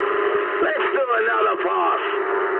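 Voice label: bomb failure mood high, speech English; War Thunder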